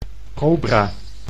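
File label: "cobra", Dutch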